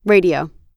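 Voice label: "radio", US English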